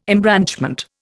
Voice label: "embranchment", Indian